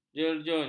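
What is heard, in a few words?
"jerun", Malay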